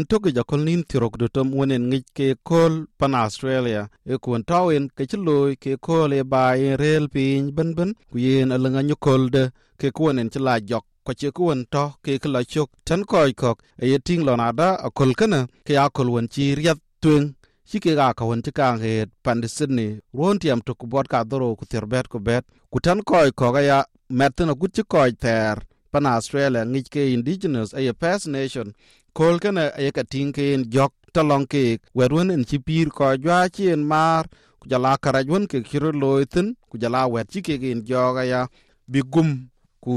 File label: dinka; Yeŋö kënë ci rot loi Pentok aköl niin 26?; SBS Dinka